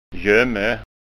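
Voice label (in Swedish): "gomme", Scanian Swedish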